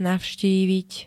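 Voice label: "navštíviť", Slovak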